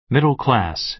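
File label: "middle class", American English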